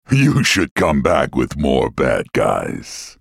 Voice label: taunt, en US; Galio; Character Voices - English